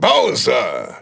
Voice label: German Announcer; SSBB